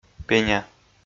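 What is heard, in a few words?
"пеня", Komi, male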